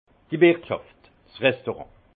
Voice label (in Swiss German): "wirtschaft", Alsace; le restaurant; vin